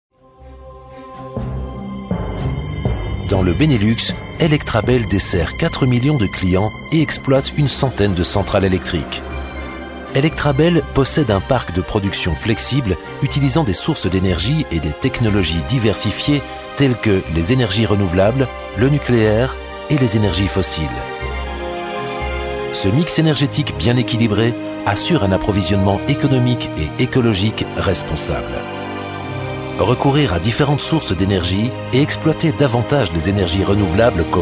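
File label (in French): french Belgian male narration; voice demos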